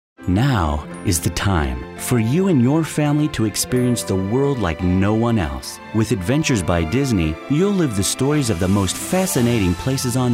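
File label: storytellers, voice-over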